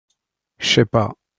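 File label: "chais pas" "je ne sais pas" French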